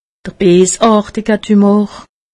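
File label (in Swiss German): "beesartige tumor", Alsatian; medecine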